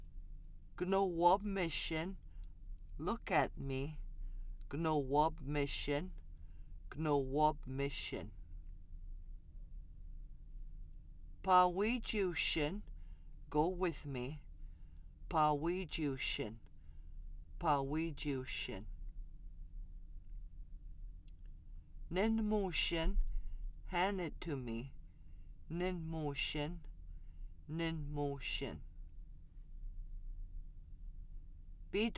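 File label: conversational commands singular, Anishinabe; Anishinaabe Language; Anishinaabe Language Revitalization Department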